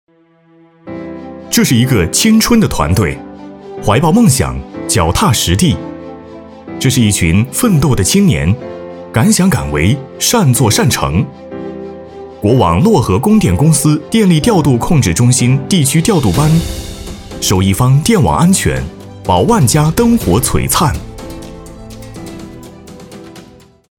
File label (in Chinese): 男 旁白解说 年轻向上; 大气主流; 年轻时尚; 国家电网青年